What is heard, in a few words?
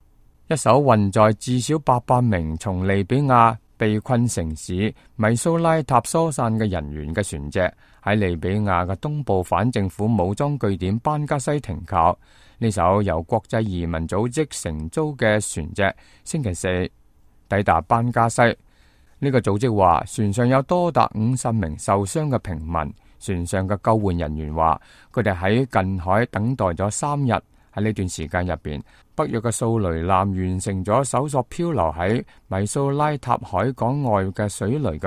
cantonese, news